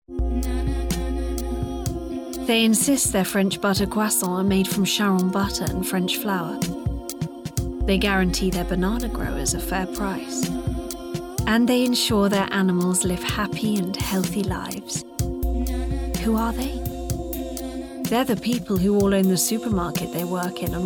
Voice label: commercial reel